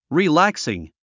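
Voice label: "relaxing", English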